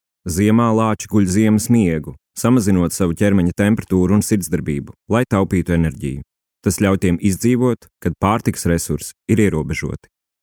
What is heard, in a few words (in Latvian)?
Infografika LV